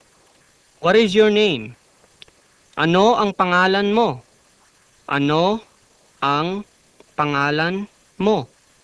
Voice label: Tagalog Name